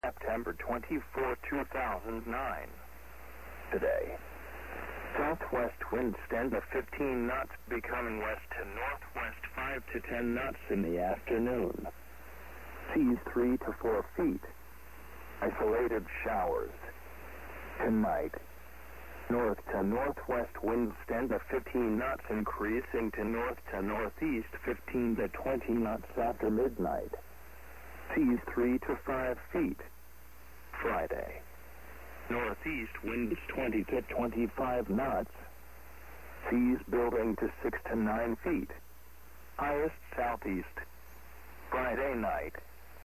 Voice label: hf voice, marine